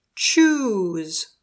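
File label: "choose", English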